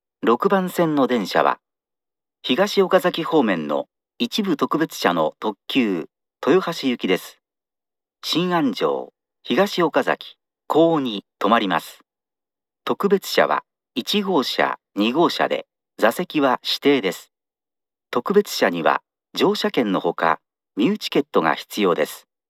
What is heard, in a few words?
arrival limited, st announce; toyohashi; meitetsu; chiryust